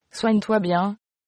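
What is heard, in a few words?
get well soon, French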